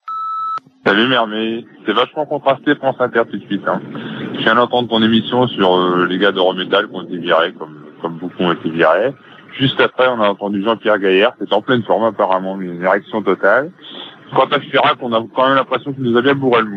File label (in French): Irak B52 repondeur